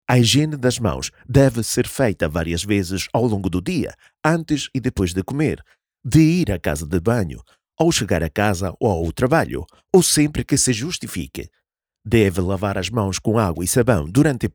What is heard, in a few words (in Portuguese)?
Videos formativos de higienizacao EU PT